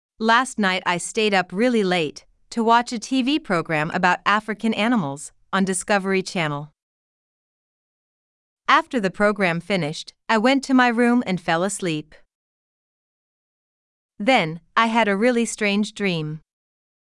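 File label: American; a strange dream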